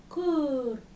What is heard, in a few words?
"köör", Dinka